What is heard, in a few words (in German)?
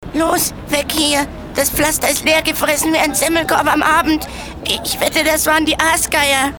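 Hörspiel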